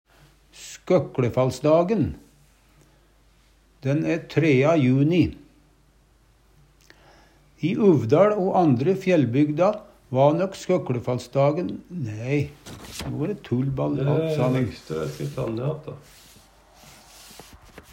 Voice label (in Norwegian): "skøkLefallsdagen", Numedalsmål